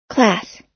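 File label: "class", American English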